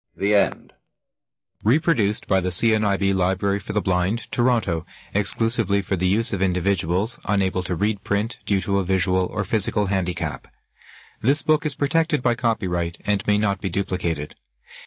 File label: Closing Announcements; The Black Arts - Witchcraft, Demonology, Astrology - Richard Cavendish